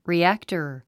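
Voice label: "reactor", English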